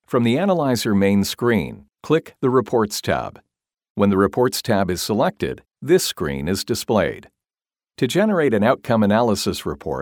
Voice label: eLEARNING